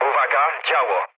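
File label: damaged aa, mood low, Polish speech; War Thunder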